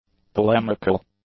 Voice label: "polemical", english; adjectives

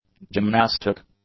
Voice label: "gymnastic", english; adjectives

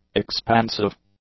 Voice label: "expansive", english; adjectives

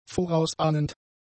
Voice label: "vorausahnend", German; adjectives

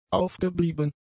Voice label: "aufgeblieben", German; adjectives

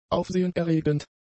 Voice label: "aufsehenerregend", German; adjectives

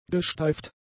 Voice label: "gesteift", German; adjectives

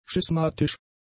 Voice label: "schismatisch", German; adjectives

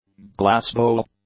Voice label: "glassbowl", English

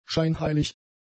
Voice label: "scheinheilig", german; adjectives

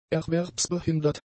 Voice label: "erwerbsbehindert", German; adjectives

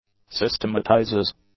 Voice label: "systematizes", English; adjectives

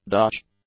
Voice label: "Dutch", english; adjectives

